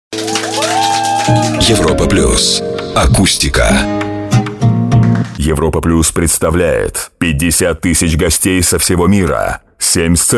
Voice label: russian voice over male commercial

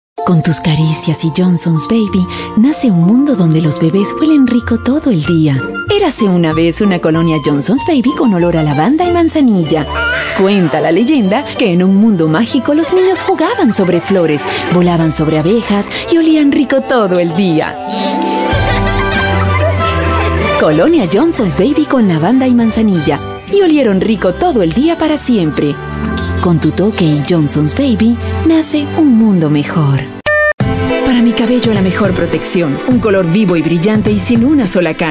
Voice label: spanish voice over female commercial; İspanyolca Seslendirme

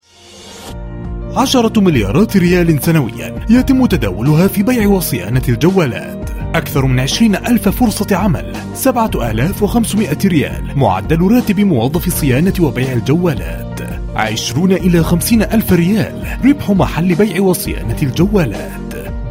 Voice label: arabic voice over male narrator; Fasih Arabic; Fasih Arabic Dubbing; BiberSA Production

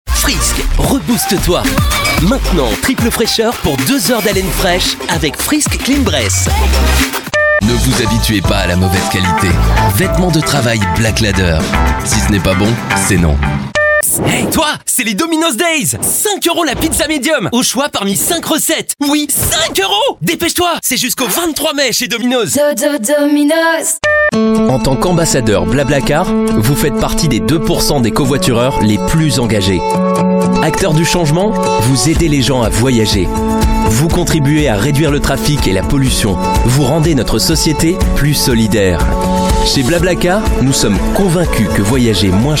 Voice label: french voice over male commercial

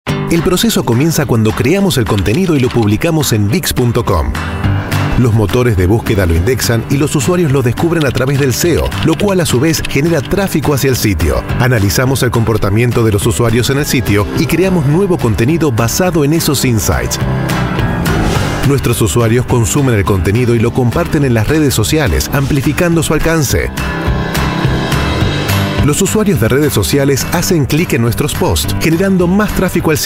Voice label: spanish voice over male commercial; İspanyolca Seslendirme

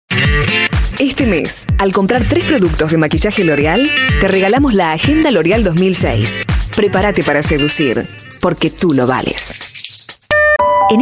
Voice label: spanish voice over female commercial; İspanyolca Seslendirme; İspanyolca Dublaj; BiberSA Prodüksiyon

